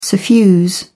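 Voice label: "suffuse", British English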